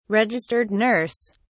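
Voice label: "registered nurse", British English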